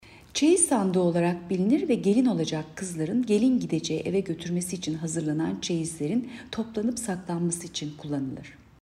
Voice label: turkce